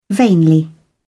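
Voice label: "vainly", British English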